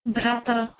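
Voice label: "bhrater", Proto-Indo-European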